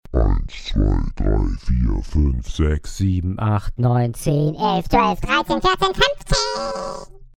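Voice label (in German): Default Tonhöhenverschiebung; Mackie DLZ Creator XS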